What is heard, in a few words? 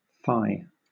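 "fie", British English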